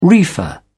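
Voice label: "reefer", English, British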